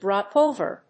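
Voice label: "drop over", English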